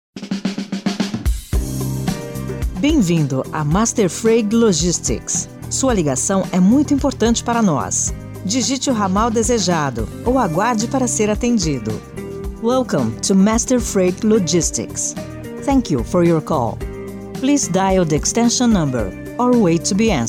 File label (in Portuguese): locutora trilingue locução em espanhol inglês e português